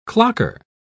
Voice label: "clocker", pronounce